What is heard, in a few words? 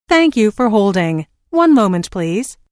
Thank You For Holding One Moment Please, Telephone prompt; Pre-recorded telephone on-hold message; Recorded Voice Sound Effects; LynneMusic